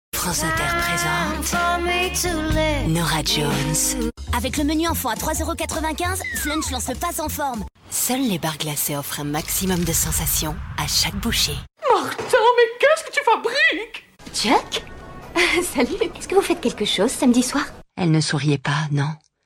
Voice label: medley pub

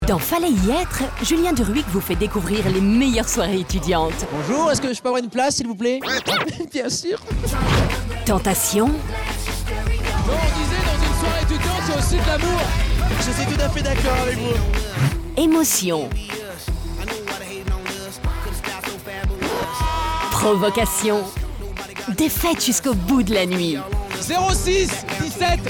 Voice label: bande annonce; chaine mce

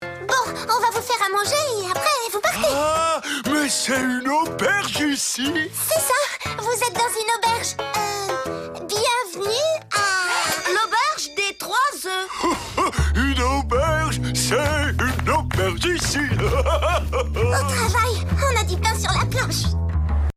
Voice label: dessins animes; petites poules

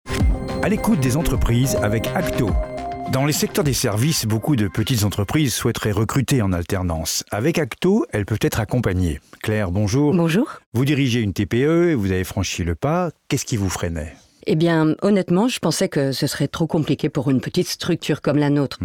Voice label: pub temoignage; akto

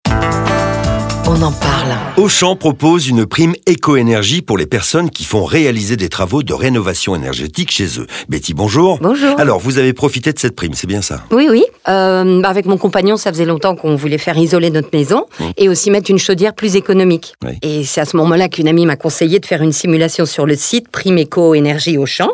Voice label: pub temoignage; auchan

